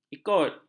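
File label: "ikut", Malay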